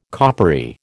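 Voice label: "coppery", American English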